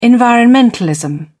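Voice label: "environmentalism", English, British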